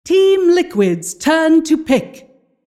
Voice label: announcer team pick